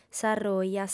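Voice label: "s'arroja", female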